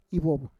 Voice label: "ivov", Roon